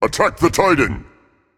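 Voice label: attack, male; smite; anhur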